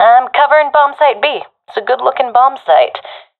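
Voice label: guarding bombsite b, professional female; vo; Counter Strike Model Pack; CS:GO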